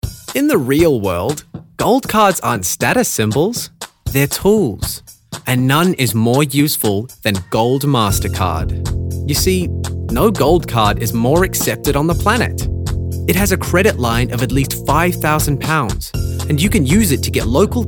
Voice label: commercials reel